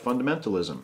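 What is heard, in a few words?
"fundamentalism", American English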